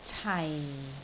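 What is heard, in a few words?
"cai4", Cantonese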